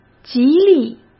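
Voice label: "极力", Mandarin Chinese; chinese flashcards; hsk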